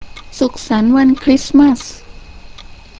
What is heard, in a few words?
Thai, christmas